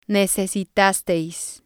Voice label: "necesitaseis", spanish